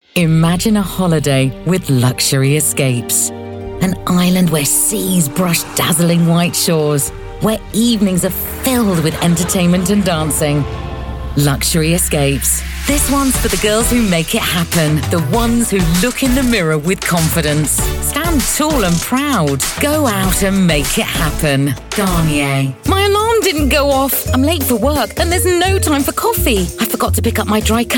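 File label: English UK, Commercial; GoLocalise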